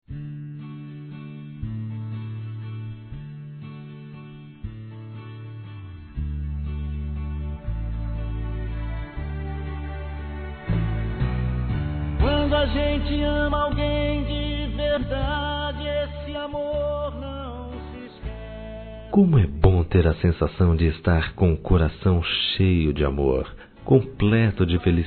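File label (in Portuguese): Namorados, male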